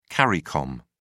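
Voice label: "caricom", British English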